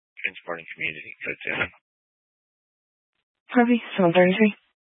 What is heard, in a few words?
Fire Dispatch, scanner